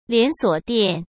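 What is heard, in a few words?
"连锁店", Mandarin Chinese; chinese flashcards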